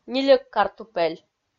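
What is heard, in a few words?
"нильӧг картупель", Komi, female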